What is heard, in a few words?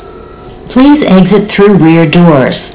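rear doors, Long Beach Transit Passengers Relations announcements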